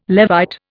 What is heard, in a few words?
"Levite", Indian